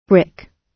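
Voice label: "brick", British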